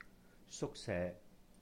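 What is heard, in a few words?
"sūk-se", Cantonese; dormitory; 宿舍; suk1se3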